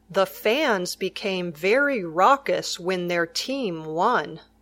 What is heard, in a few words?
raucous sentence